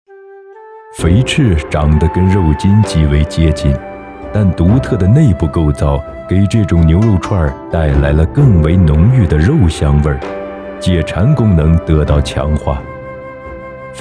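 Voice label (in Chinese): 纪录片中国风中华美食广告; 舌尖肥翅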